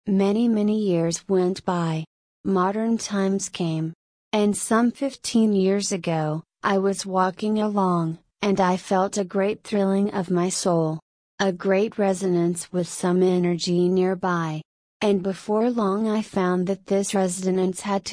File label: substitute voice rate slow pitch medium